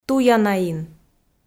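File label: "туянаин", Komi, female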